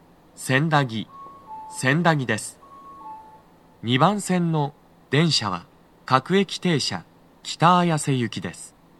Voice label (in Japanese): 到着放送, 男声; 2番線 綾瀬・北綾瀬・取手方面; 放送は「各駅停車 北綾瀬行」です。